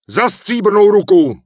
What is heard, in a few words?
Human Male Official NPC Farewell; wowpreklad; czwow